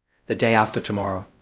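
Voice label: Voice Acting Spanish, male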